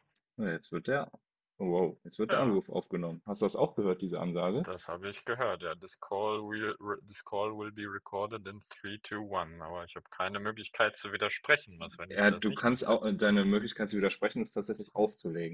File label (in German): Telefonat; nothing ear 3a kopfhoerer test